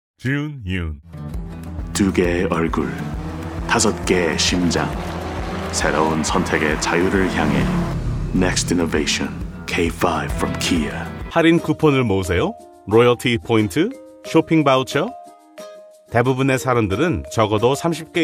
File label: korean